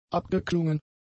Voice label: "abgeklungen", German; adjectives